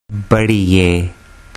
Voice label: "baree ye", Urdu

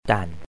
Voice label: "dan" egg, mandarin

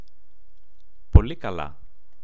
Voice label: "poli kala", greek

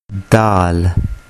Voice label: "daal", urdu

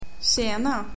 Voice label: "tjena", swedish

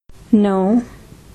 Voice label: Nine, hindi

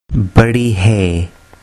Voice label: "baree he", urdu

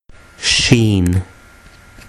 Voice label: "sheen", urdu

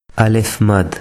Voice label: "alif madd", urdu

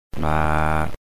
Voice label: "ma" mother, mandarin

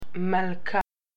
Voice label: "queen", Hebrew

